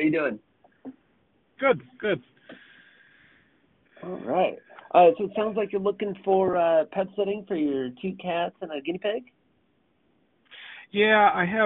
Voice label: Sales Call; Cat and Guina Pig; example sales call